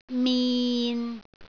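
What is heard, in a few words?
"min6", Cantonese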